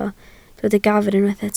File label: "gafr", Welsh